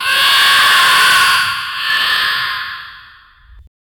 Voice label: a creepy porcelain doll s, doll

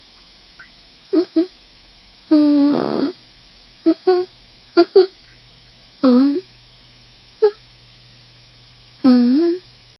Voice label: a cute 20 year old female, bathroom

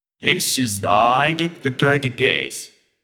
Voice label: historical voice

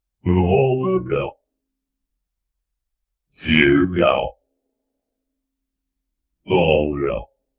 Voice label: guy says nooooo just like, anguished

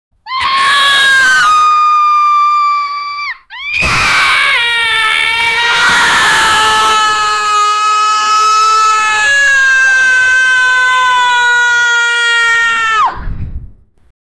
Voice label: one girl and two boys, emotions

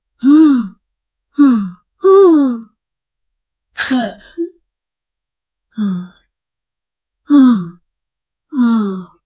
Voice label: normal female noticing sound, reaction

